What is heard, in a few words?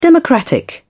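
"democratic", British English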